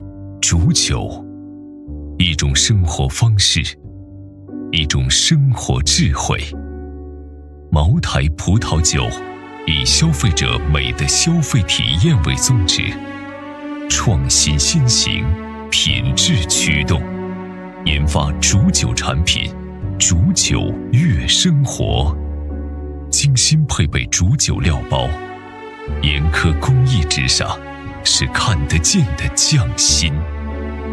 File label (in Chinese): 男 广告类 葡萄酒官方广告; 茅台葡萄酒官方广告; 煮酒系列